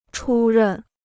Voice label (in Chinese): "出任", Chinese